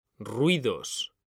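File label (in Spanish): "RUIDOS" Spanish, male; mekanta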